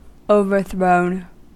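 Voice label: "overthrown", American English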